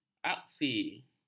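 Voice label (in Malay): "aksi", Malay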